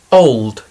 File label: "old", English